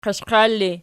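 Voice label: "qiashqiar" ergative, Archi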